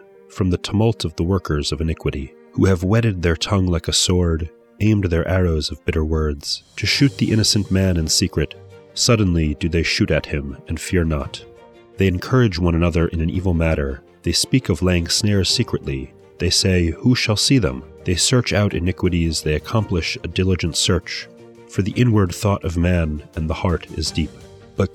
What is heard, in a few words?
poetry and prayer psalm english